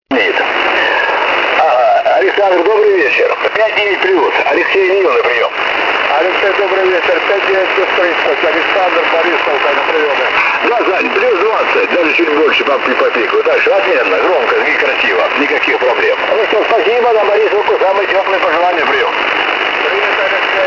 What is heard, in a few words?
russian ham sw